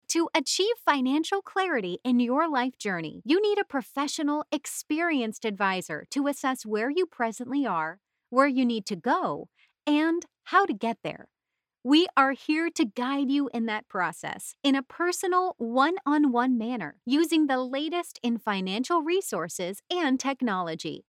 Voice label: friendly